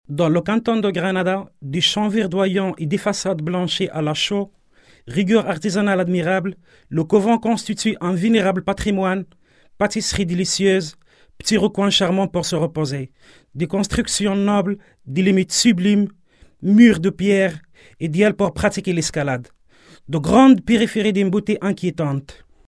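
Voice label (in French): African French, male, narration; voice demos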